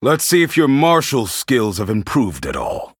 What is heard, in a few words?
Male Human; Cornelius; Cariel Mission3 Exchange A